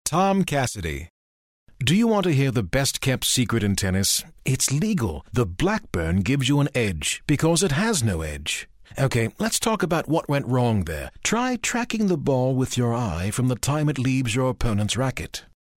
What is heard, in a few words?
BRITISH; British Accent